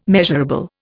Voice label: "measurable", Indian